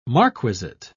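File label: "marquisate", British English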